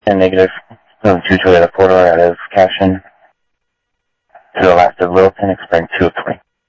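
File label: Sheriff West County, scanner audio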